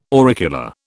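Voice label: "auricular", British English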